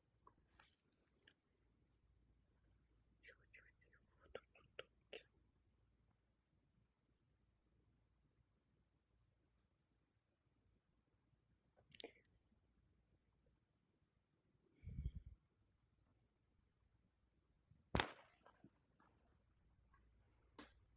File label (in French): Voix off